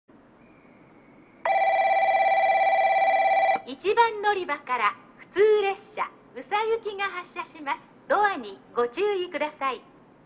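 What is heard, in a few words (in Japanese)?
1番のりば発車放送, 中津駅; 普通 宇佐行き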